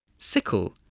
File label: "sickle", spelling